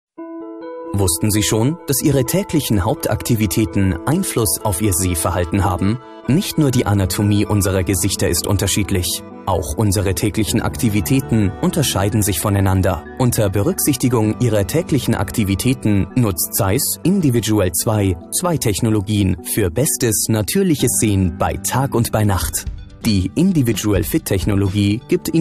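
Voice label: german male corporate products, German Accent Male Voice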